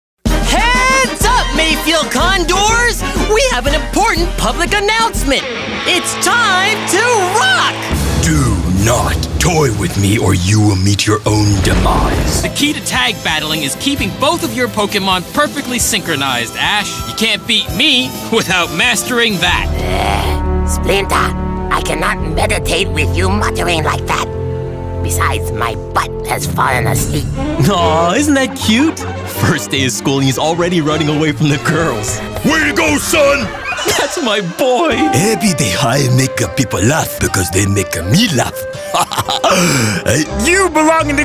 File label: animation men